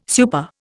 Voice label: "super", Indian